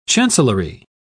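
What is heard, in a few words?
"chancellory", British English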